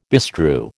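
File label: "bestrew", American English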